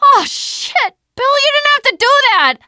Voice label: teengirl, voice; c6 dlc3 bill dies